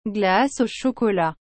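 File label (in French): "glace au chocolat", French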